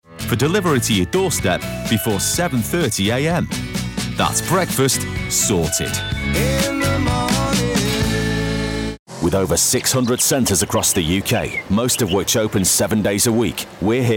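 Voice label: commercial reel, Natural Northen Male Voice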